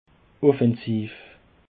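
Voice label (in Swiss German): "offensiv", Alsatian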